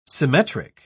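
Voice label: "symmetric", English, British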